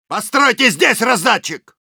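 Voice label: Soldier need dispenser, Russian; «Постройте здесь раздатчик.»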